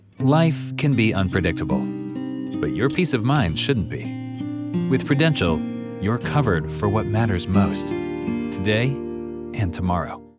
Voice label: Corporate; Prudential